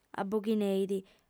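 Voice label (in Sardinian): "aboghineit", lingua sarda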